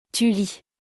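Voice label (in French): "tu lis", French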